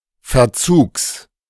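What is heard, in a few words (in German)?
"Verzugs", German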